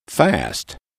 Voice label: "fast", english

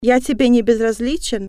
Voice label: do you love me male, russian

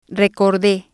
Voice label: "recorde", Spanish

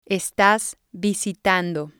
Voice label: "estas visitando", spanish

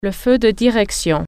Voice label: "turn signal", french

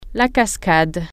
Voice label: "waterfalls", french

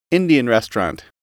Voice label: "Indian restaurant", English

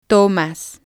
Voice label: "tomas", Spanish

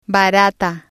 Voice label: "barata", spanish

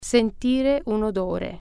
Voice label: smell, italian

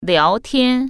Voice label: "chat", Chinese

